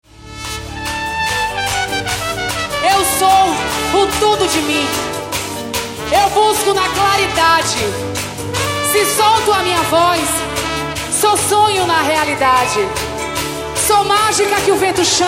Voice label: poema ao vivo

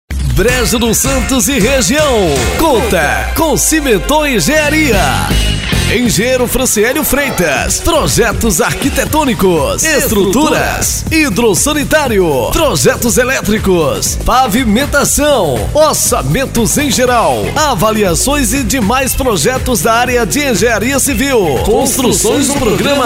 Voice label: locutor gravacoes

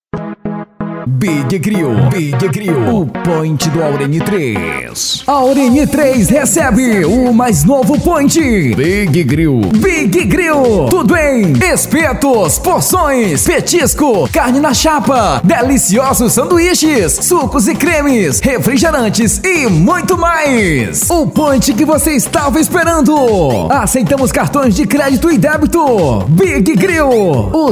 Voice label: spot

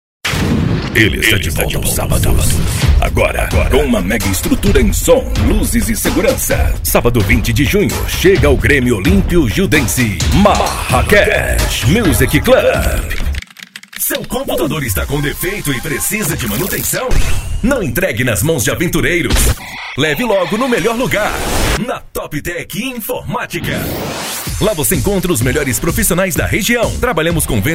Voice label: locutor consultar preco

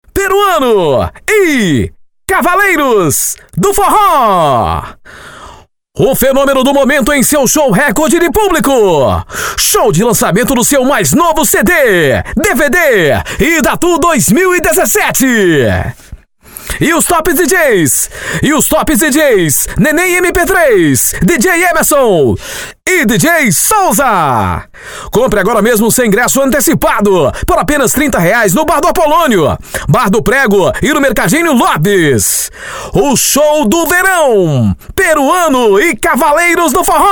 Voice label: voz estilo super jovem

